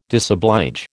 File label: "disoblige", American